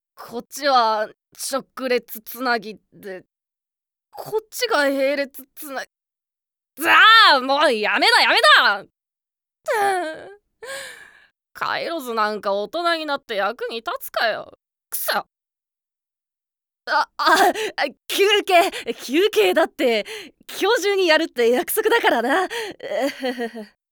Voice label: Akarui Otokonoko

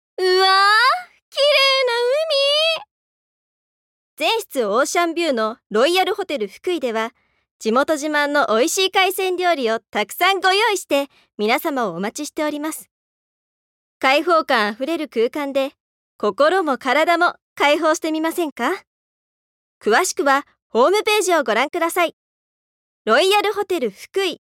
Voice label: Narration Kanko CM

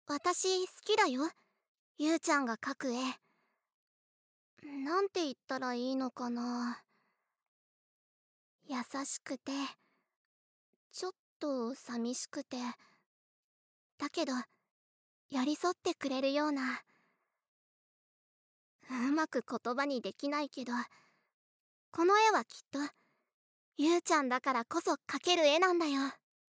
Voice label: 優しい女の子

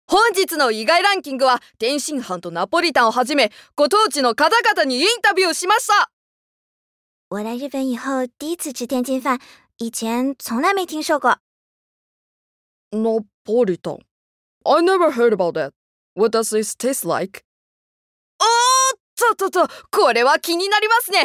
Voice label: Narration Yokoku CM

